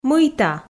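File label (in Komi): "мыйта", Komi, female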